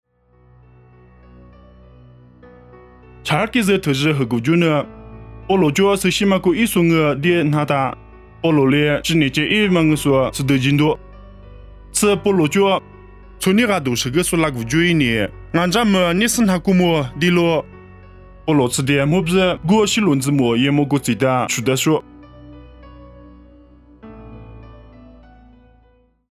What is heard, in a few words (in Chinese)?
凉山彝语; 地中海基利家; nuosu